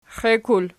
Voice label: xiekul nom, archi

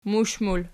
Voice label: "mush", Archi

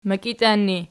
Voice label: "mikitian", Archi

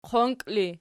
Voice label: "qonki", Archi

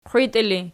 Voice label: "qiwitii", Archi

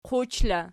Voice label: "quch", Archi

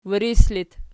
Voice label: wiris loc, archi

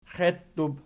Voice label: "xeittub", Archi

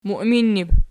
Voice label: "muiminnib", Archi

